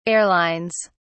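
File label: "airlines", British English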